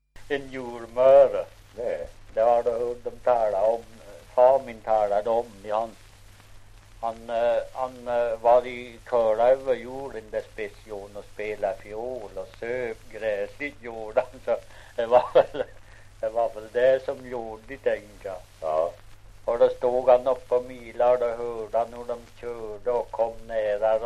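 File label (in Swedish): Ockelbo Gästrikland, dialekter; Folkminnen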